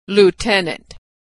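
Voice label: "lieutenant", American English; vowel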